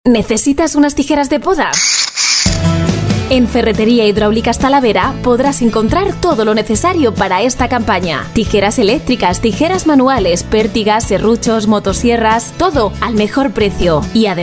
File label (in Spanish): MUJER